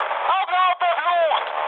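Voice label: German player friendly fire mood high, speech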